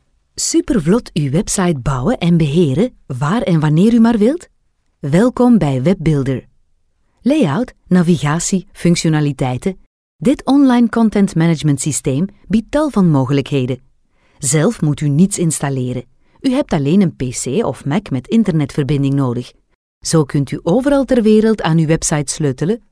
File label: E-learning, informatief; Informationen; Webbuilder